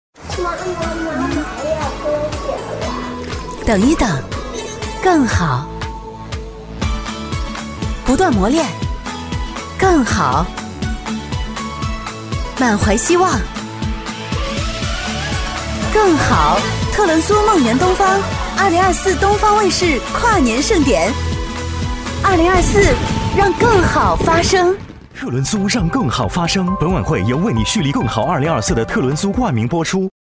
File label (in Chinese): 女 TVC广告 活力 积极向上; 东方卫视特仑苏